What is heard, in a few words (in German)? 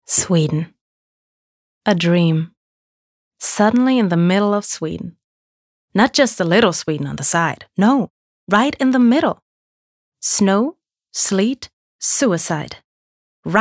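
US English, Schauspiel Variabel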